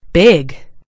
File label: "big", en GB; gcompris